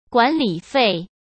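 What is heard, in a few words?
"管理费", Mandarin Chinese; hsk; chinese-flashcards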